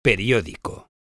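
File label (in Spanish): "periodico", Spanish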